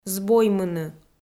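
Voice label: "збоймыны", Komi, female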